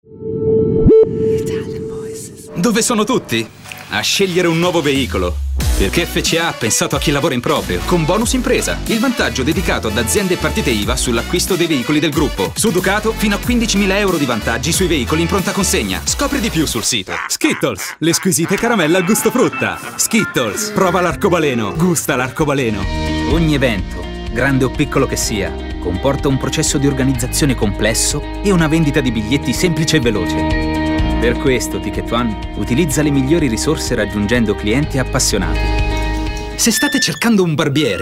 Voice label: ITALIAN VOICES Recent Commercials, male voices

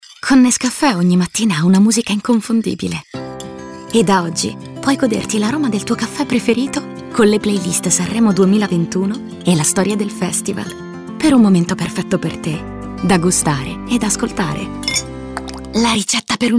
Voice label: Warm Smooth, female voices

